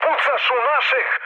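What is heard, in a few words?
Serbian player friendly fire mood med; War Thunder; speech srb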